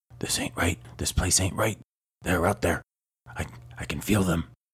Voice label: Frightened tradesman with nervous energy, practical skills, and trembling vulnerability, Audiobook NPC; Joss